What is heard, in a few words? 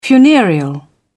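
"funereal", British English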